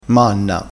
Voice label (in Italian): "manna", crespinese